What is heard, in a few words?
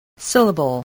"syllable", English